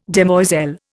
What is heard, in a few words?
"demoiselle", Indian